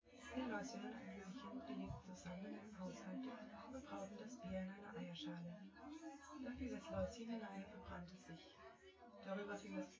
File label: female synthconv